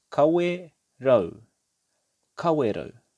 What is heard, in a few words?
"Kawerau", te reo